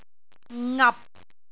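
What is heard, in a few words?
"ngap6", Cantonese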